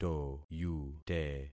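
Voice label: "toyute", concatenated syllables; Affixing experiment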